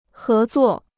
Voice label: "合作", Chinese

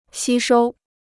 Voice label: "吸收", Chinese

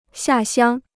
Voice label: "下乡", Chinese

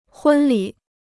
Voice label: "婚礼", Chinese

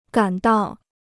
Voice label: "赶到", Chinese

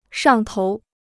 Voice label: "上头", Chinese

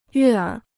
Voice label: "悦耳", Chinese

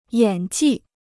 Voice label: "演技", Chinese

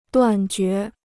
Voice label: "断绝", Chinese

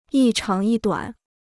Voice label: "一长一短", Chinese

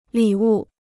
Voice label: "礼物", Chinese

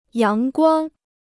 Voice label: "阳光", Chinese

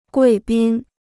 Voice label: "贵宾", Chinese

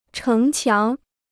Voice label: "城墙", Chinese

